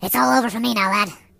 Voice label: So long, voices, Pirate; hedgewars